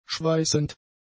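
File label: "schweißend", German; adjectives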